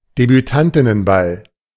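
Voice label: "Debütantinnenball", German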